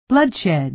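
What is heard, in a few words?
"bloodshed", American English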